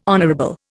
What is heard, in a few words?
"honourable", Indian